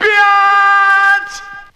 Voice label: "bitch", male; Voice